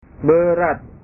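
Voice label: "berat", Indonesian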